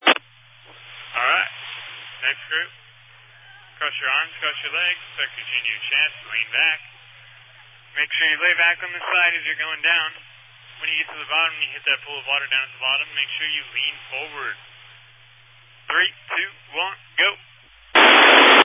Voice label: Family Radio Service (FRS)